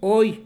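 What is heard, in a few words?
"hoy", Mexican Spanish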